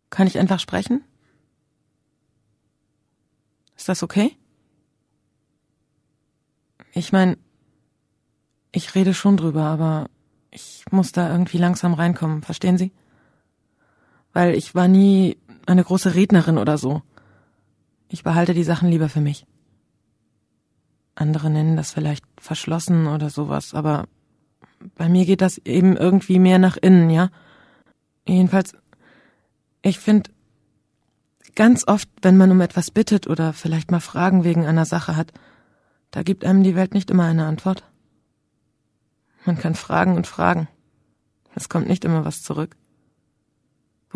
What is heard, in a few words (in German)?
deutsch rolle; medea-redux